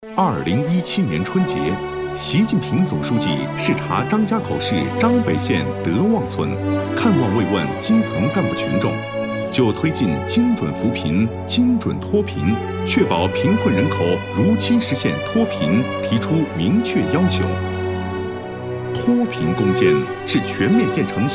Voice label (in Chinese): 男国 专题 政府 沉稳; 致富带头人